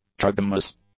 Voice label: "trigamous", English; adjectives

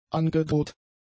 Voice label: "angedroht", German; adjectives

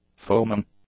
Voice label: "foaming", english; adjectives

